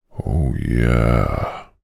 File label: human voice male american pleasure oh yeah